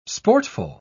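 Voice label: "sportful", British English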